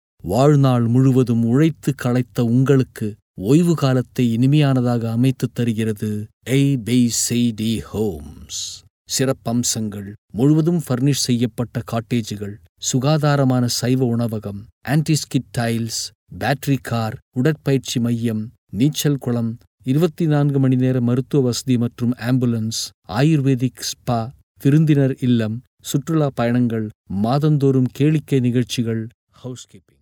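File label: Tamil Sri Lanka male voice over, sales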